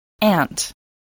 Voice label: "aunt", english